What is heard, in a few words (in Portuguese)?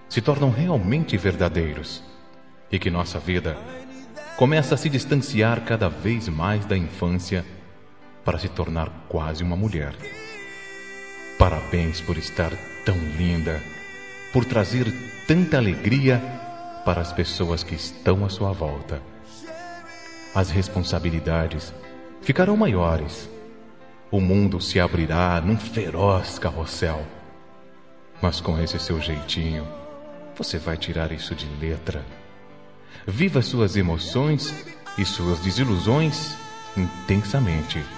15 anos masc